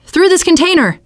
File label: container, teengirl, voice